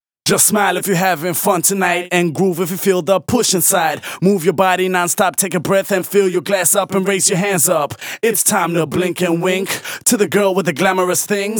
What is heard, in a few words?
Rap Vocals DRY, 128 BPM; Vengeance Samples; Vocal-Hook Kits; Song Kit